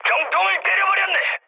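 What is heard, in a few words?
Korean bomb success mood high; War Thunder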